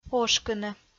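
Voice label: "ошкыны", Komi-Zyrian, female; audio dict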